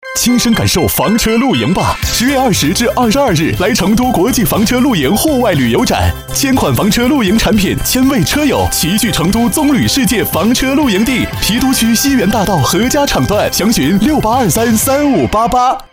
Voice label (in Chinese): 广告 房车露营户外旅游展